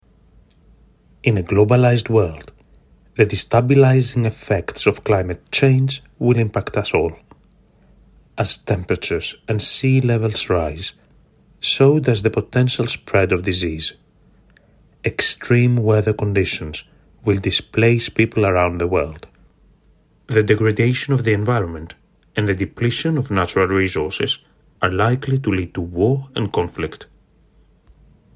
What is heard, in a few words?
Climate change english